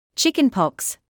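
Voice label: "chickenpox" English, British; ˈtʃɪk.ɪn.pɒks; CHICK-in-pocks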